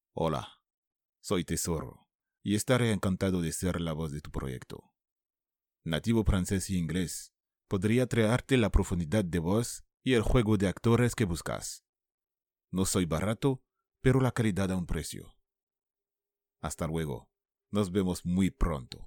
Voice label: SPANISH